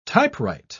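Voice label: "typewrite", English, British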